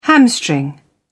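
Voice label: "hamstring", British English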